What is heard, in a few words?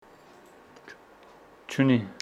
Homshetsi he doesnt have